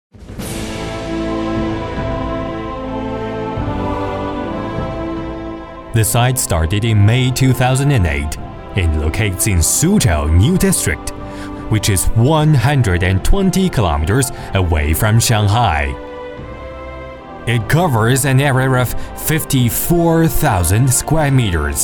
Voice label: 英男 英文宣传片 工厂英文宣传片; 苏州

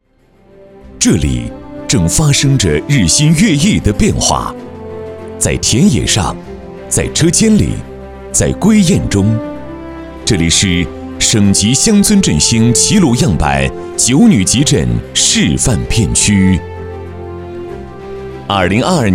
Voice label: 男 宣传片 农业

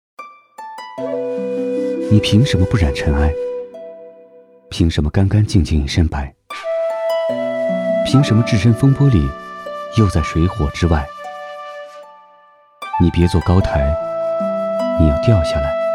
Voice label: 男 抖音解说

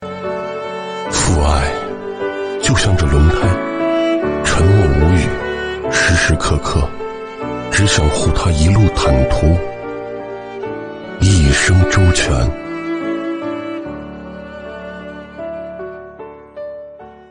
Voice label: 男 广告 父亲节旁白; 双星轮胎

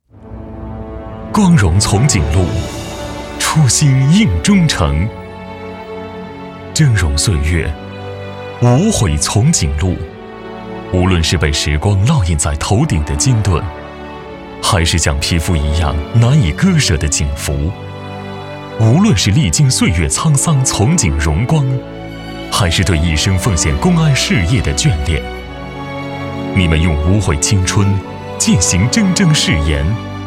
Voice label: 男 人物专题 光荣从警路 初心映忠诚

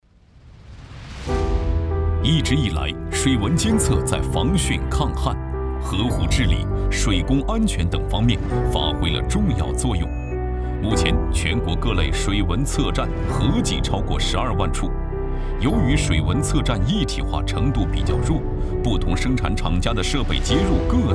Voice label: 男 专题 工程解说

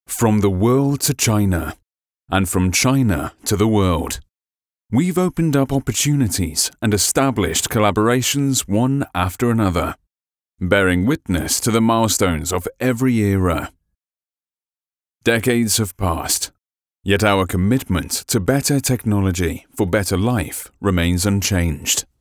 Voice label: 英文 形象片解说词; 中技

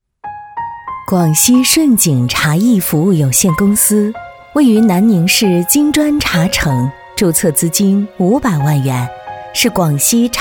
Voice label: 女 职业培训学校宣传片; 南宁市顺景职业培训学校宣传片

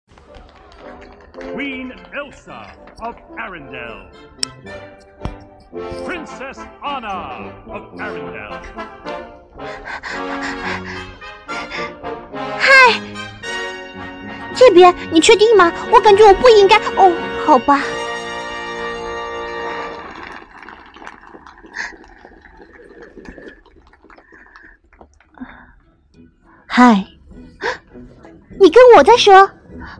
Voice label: 女,角色 双角色配音; 冰雪奇缘

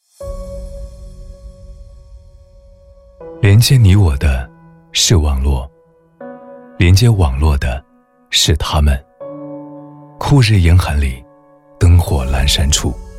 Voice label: 男 广告 温暖 自然; 中国联通

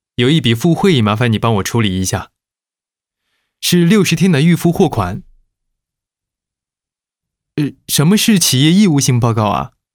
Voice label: 剧本角色配音-短剧配音; 某贸易外汇

